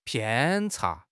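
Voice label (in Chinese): "偏差", Chinese; HSK; HSK6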